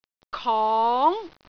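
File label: "kong2", Cantonese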